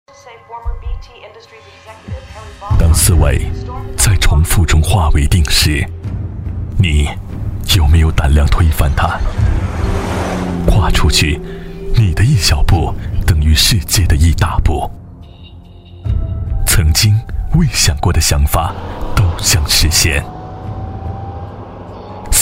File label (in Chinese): 男60号 高端品牌; 奥迪A8L探索无限; 国语男声配音; 交广主播